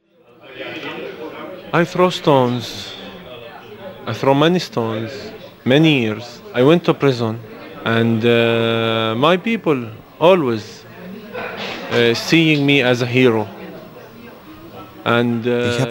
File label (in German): Voice-Over; Palästinenserproblematik